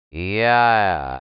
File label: rabbit vowels only